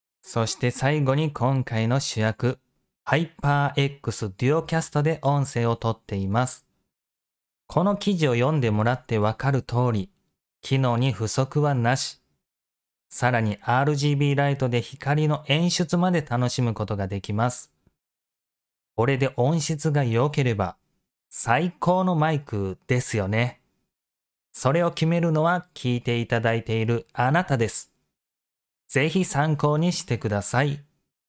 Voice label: hyperx quadcast solo; hyperx quadcast solo duo

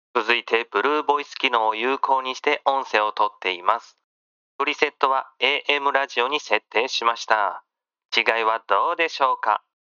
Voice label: logicool g733; logicool g733 review

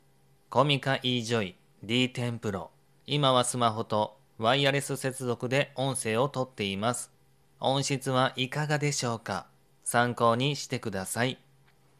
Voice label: wireless dynamic microphone; comica

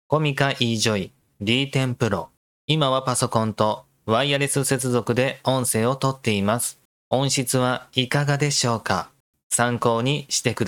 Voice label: wireless dynamic microphone; comica

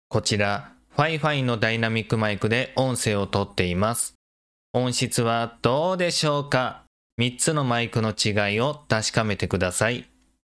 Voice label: fifine tank3

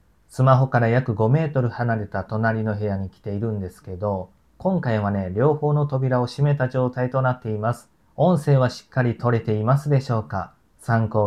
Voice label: maono wireless microphone

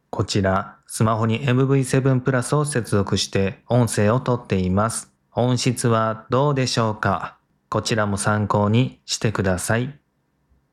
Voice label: shure mv7

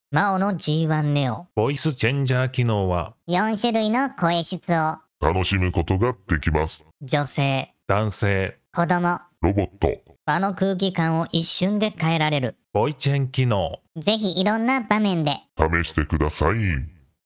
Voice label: maono g1 neo

